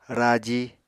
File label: "raji", Apatani